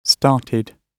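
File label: "started", British English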